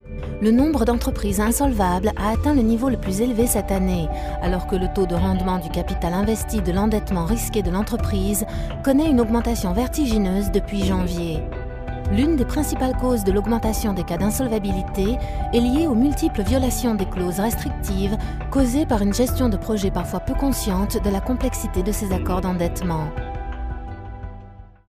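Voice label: French, narration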